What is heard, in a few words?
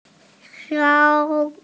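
"sou1", Mandarin Chinese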